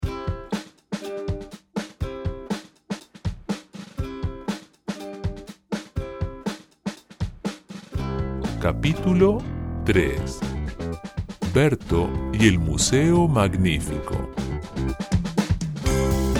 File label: Audiobook Sample; Berto y sus buenas ideas; Capítulo 3